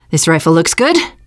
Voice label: take assault rifle, teen girl

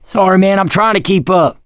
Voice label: going to die, survivor voice mechanic

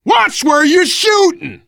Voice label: friendly fire, voice, biker

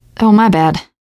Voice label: sorry, teen girl; player; survivor; voice

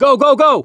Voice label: emphatic go, voice, mechanic

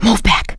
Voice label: backup quiet, survivor voice; producer

